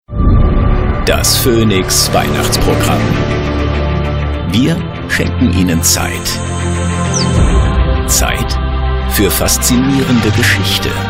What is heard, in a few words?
Xmas Trailer; Phonix